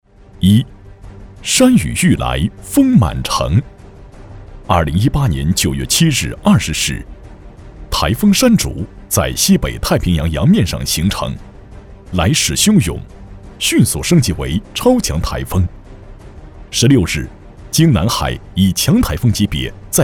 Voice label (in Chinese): 男 紧张专题感 防御山竹